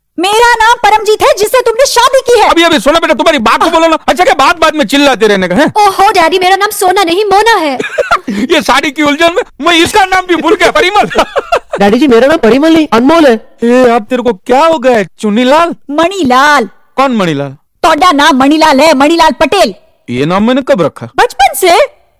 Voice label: comedy; paresh rawal